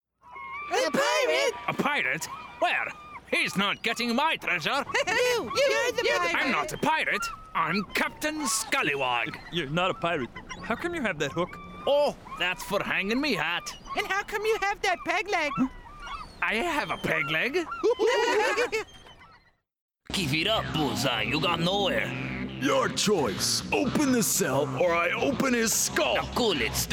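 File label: Brazilian Portuguese, male, animation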